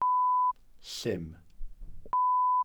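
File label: "llym", Welsh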